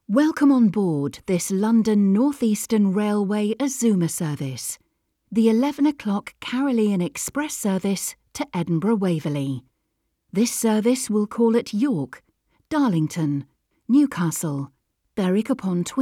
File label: Service announcement; LNER Carolean Express